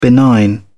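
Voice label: "benign", English, British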